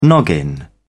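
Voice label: "noggin", British English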